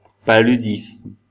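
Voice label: "paludisme", French; phonemes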